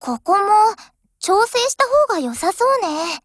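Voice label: DIALOGUE, Japanese; 63type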